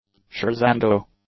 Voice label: "scherzando", English; adjectives